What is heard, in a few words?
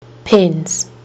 "pens", kiwi english